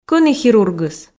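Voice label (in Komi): кӧні хирургыс, Komi, female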